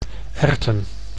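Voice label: "erwten", Dutch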